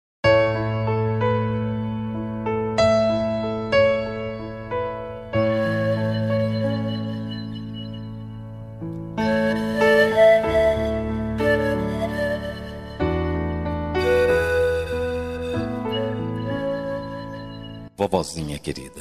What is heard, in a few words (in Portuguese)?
masc, voz masculino; dia dos avos para avo voz masculino